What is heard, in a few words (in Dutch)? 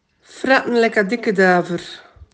Ostens Oostende